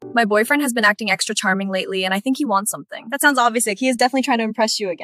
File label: two women pool tanning dialogue